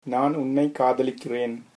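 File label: I love you, Tamil, male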